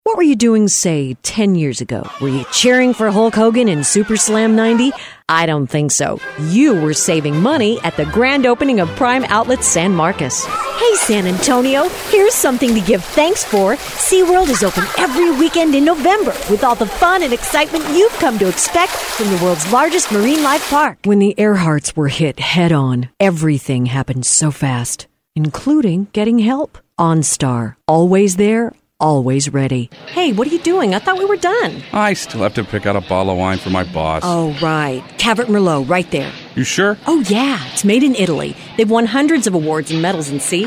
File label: english females